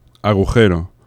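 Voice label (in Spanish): "agujero", Spanish; laboratori fonetica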